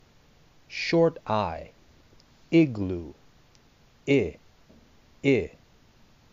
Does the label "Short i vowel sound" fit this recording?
Yes